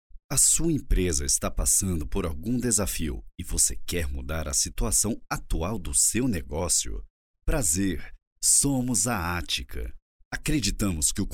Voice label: portuguese brazilian corporate videos

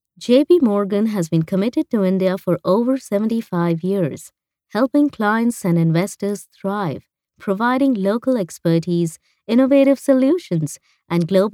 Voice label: english indian corporate videos

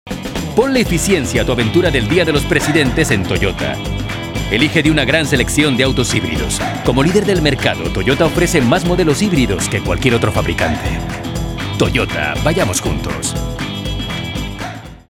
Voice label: spanish latin american automotive

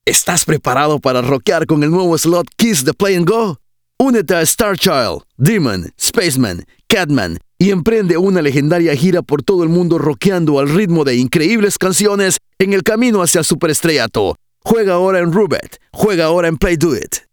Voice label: spanish latin american video games; Espanhol (latino-americano); Estados Unidos da América

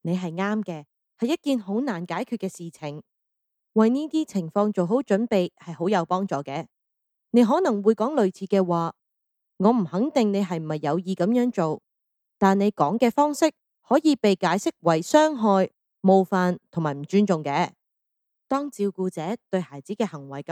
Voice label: chinese cantonese commercial; professionelle Synchronsprecherin

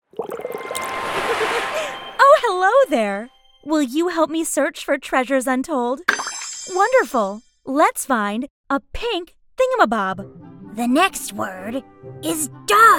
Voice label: english american

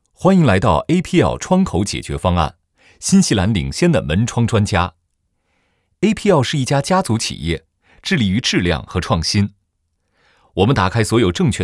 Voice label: chinese mandarin corporate videos

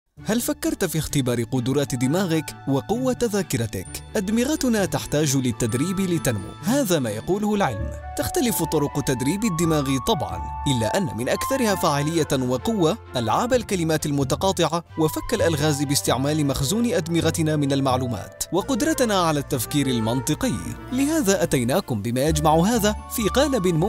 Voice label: arabic commercial; Marokko; Arabisch

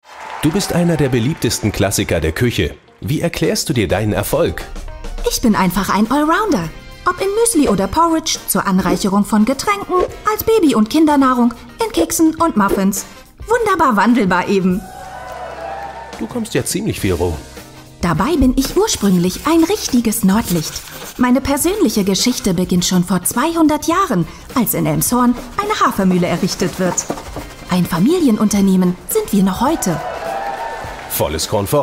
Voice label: german video games

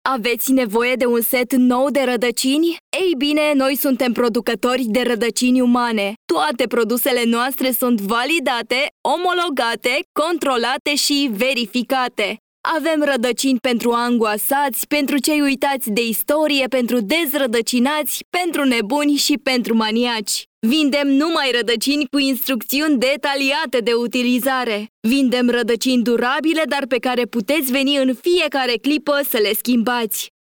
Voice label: romanian promos

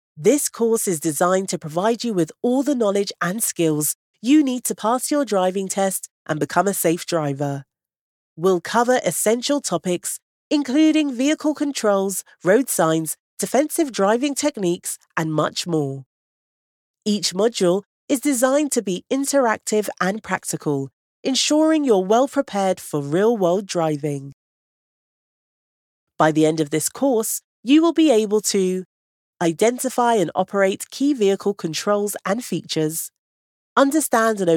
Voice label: english british e-learning, british voice actors

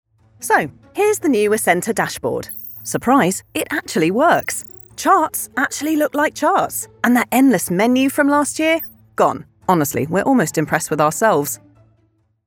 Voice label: english british corporate videos

